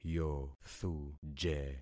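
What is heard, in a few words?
"yothuje", concatenated syllables; Affixing experiment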